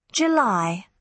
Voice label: "july" UK